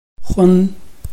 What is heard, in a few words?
"hun1", Chinese